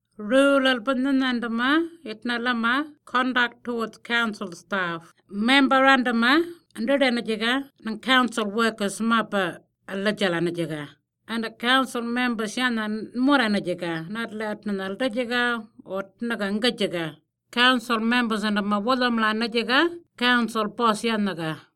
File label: Arrernte; Code of Conduct; CONDUCT TOWARDS STAFF